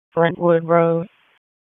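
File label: Police Northeast District Dispatch, Raleigh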